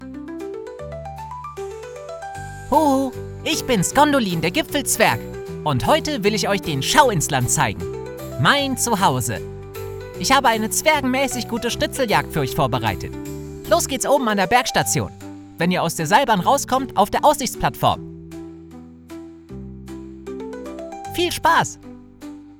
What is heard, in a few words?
Audioguide; Gondolin